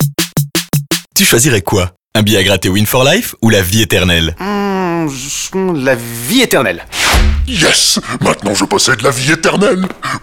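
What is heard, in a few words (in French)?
Radio, French, Zombie; WinForLife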